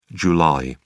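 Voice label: "jul", British English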